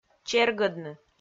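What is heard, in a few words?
"чергӧдны", Komi-Zyrian, female; kpv audio dict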